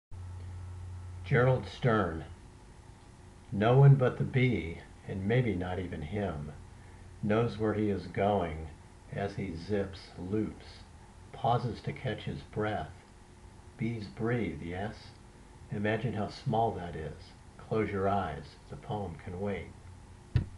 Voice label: Stern